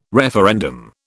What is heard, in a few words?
"referendum", British